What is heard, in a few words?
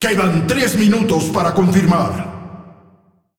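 announcer female tournaments lockin 10min, hu_hu; tournaments lockin 3min; clashvo; es mx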